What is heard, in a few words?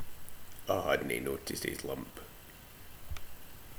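"hadnae", slang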